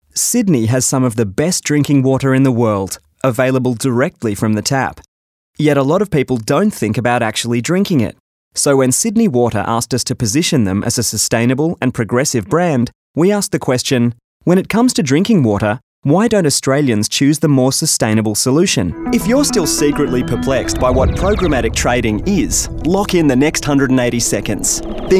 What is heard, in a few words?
NARRATION